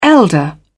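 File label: "elder", British English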